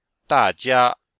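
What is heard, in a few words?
"da4jia1", Chinese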